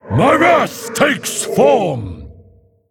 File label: Male Mogu